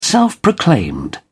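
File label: "self-proclaimed", British English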